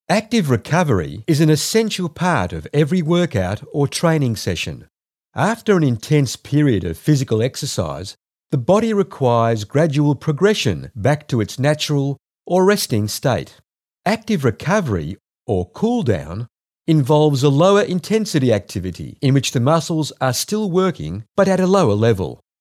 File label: Elearning